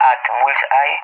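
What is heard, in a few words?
"at bullseye", English, Spain; DCS; Allied Flight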